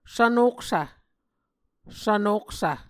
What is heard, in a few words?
"svnoksv", Muscogee